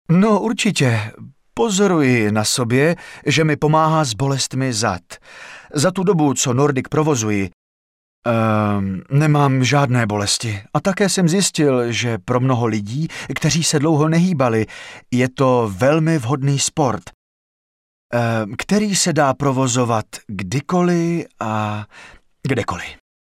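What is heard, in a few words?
Male Czech drama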